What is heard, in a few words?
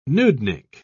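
"nudnik", British English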